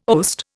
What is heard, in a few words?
"oast", Indian